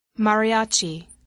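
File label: "mariachi", English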